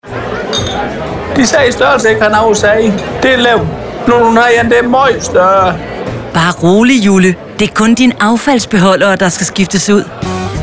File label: radiospot; Arwos; size matters